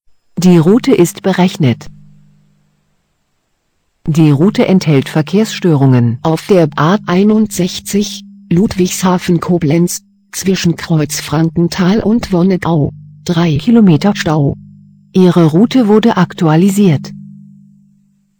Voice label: Text2speech